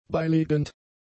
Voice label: "beilegend", German; adjectives